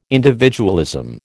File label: "individualism", American